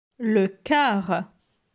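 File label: "Le car", French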